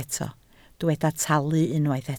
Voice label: "talu", Welsh